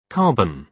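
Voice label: "carbon", British English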